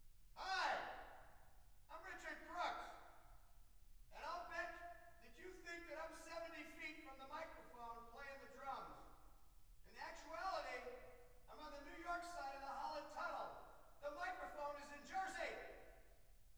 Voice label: Percussion Imaging Tests, Drum 70 Feet, Live Studio: Announcement